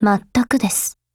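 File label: AGREE, Japanese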